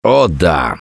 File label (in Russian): Yes, left4dead2 russian, Survivor Voice; Gambler